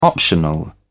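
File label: "optional", British English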